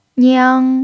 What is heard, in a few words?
"niang1", chinese pinyin